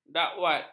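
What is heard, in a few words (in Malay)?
"dakwat", Malay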